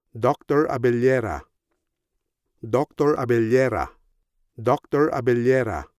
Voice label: "Doctor Abellerra", pronunciation

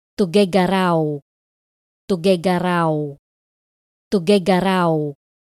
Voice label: "Tuguegarao", pronunciation

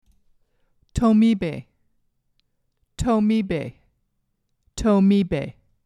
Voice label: "Tomibe", pronunciation

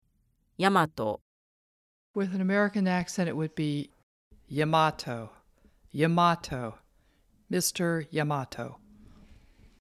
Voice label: "Yamato", pronunciation

